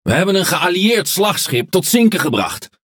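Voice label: NL Speech Destroy Ally Battleship, Dutch; World Of Warships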